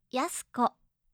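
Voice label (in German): "yasuko", japanische Mädchennamen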